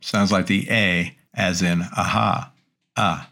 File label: Greek Vowels α Short; greek grammar